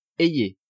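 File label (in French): "ayez", French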